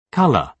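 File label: "colour", British English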